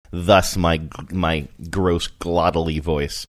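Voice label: thus my gross glottally voice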